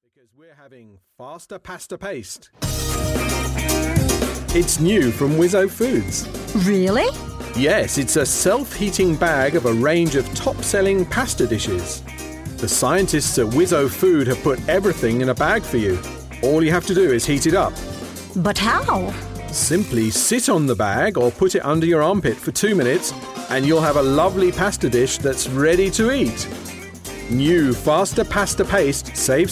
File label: radio Ad